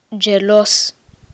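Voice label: jealous, Romanian; PictureDictionary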